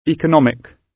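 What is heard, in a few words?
"economic", British English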